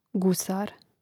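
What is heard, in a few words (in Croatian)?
"gusar", Hrvatski; mreznik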